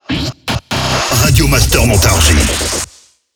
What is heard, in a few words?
Sweeper SPEED; Montargis